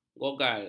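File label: "gogal", Malay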